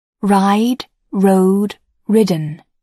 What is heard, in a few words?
"ride", English